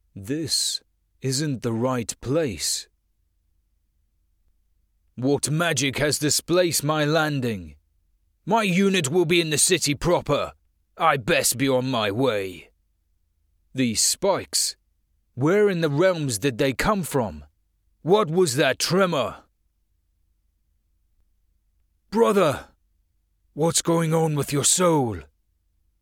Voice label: English Scottish video games

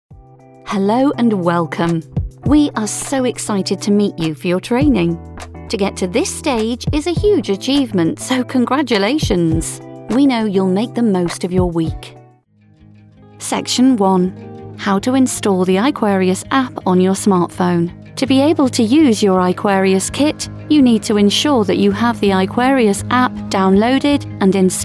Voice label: english british e learning

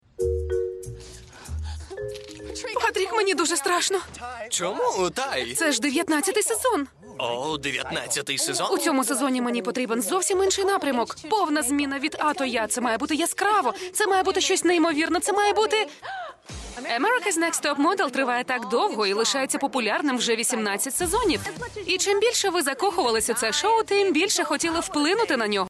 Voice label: ukrainian tv shows

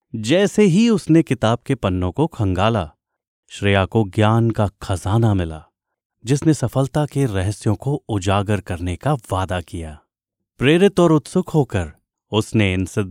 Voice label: hindi narration

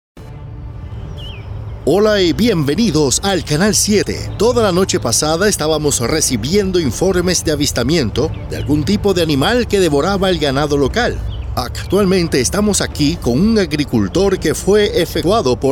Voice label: spanish latin american video games

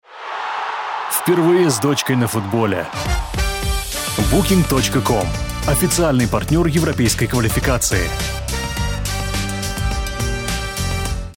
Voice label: russian commercial

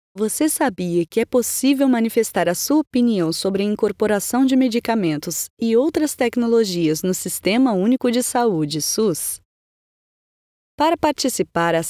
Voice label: portuguese brazilian audiobooks

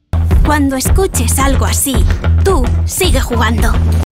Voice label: spanish, voice actors

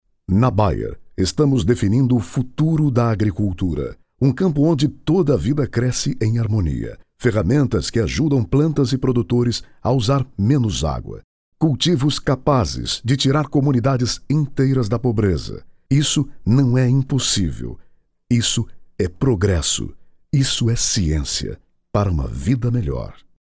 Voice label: portuguese brazilian corporate videos

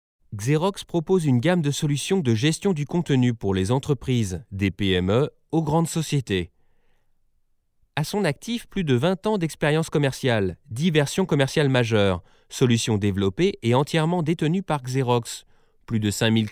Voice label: french corporate videos

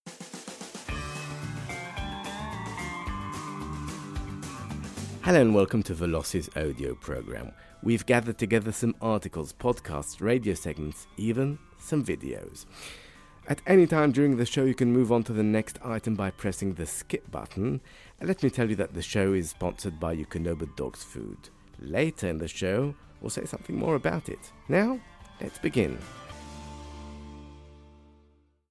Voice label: english commercial

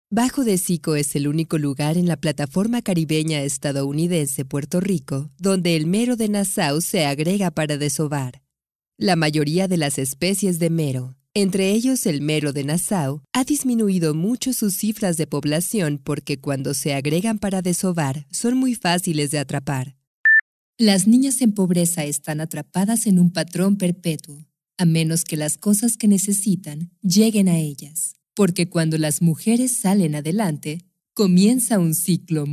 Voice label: spanish latin american neutral commercial

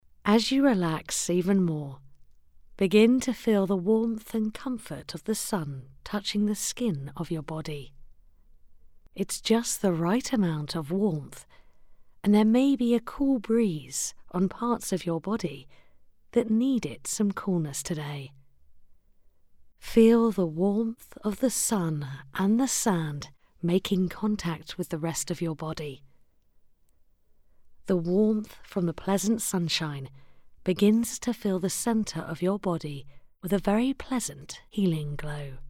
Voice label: english british others

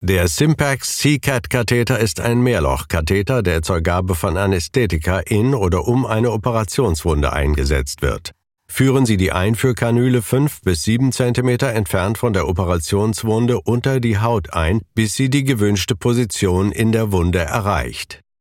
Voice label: german corporate videos

